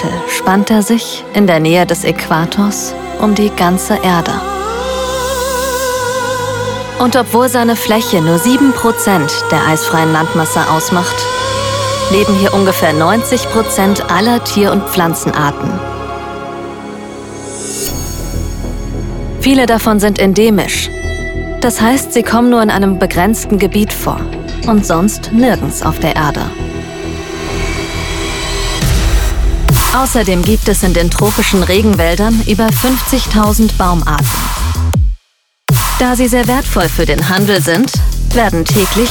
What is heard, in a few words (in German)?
dokumentation regenwald